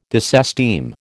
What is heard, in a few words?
"disesteem", American